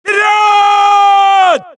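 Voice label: suppress, dialogue, Allies; mohaa; Mission 3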